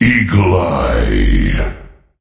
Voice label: Voices Announcer Reward